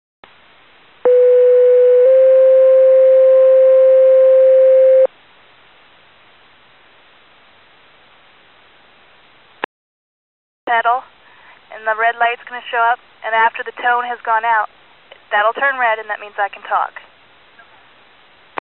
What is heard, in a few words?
dispatcher trainee